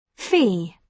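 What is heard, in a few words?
"fee", British English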